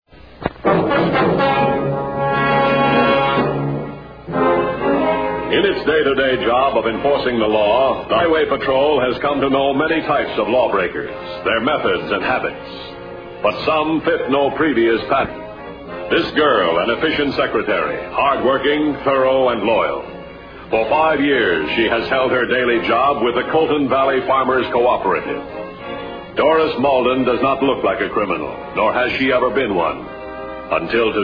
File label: narrate